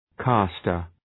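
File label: "castor", English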